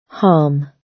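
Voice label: "harm", British English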